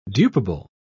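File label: "dupable", British English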